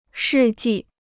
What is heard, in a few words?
"世纪", Chinese